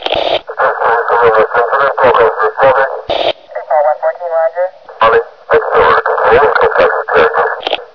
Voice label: city cop radio

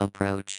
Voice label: "approach", your everyday robot voice; fourbit; dry; Leevaudio

